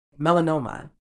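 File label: "melanoma", British English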